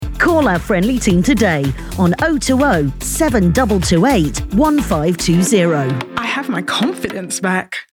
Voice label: Advertisement; Hair Master